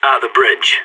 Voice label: bridge, radio; SAS